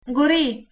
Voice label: fire, slovenian

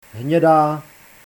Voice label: brown Czech

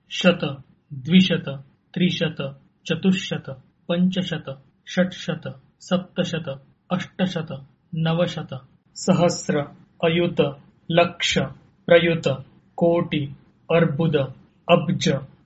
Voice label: numbers, Sanskrit

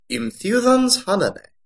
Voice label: i am the king of the chickens, gothic

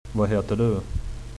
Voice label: name, swedish

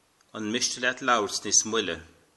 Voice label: slowly, Irish

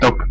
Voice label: "stop", West Flemish

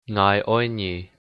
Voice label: i love you, hakka

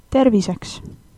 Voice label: cheers, estonian

